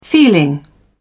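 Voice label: "feeling", British English, dictionary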